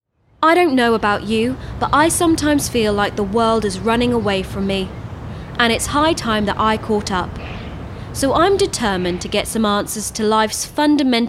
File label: Presenter